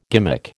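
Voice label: "gimmick", American English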